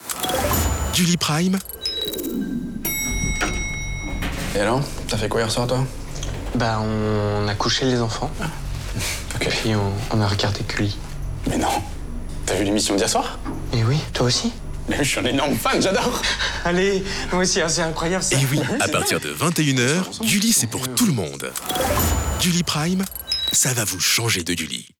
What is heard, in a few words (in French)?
Spot TV adapté a la RADIO, Spot Ascenceur; M6; GULLI